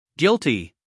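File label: "guilty", American English, male; 1000 hours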